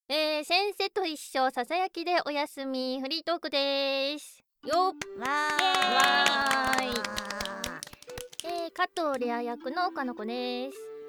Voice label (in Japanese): 4人トーク, ASMR; せんせといっしょ～ささやきでおやすみ～